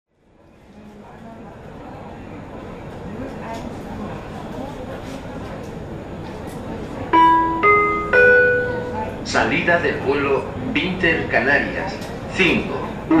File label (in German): Flughafen Ansagen, Lanzarote; Intercanarias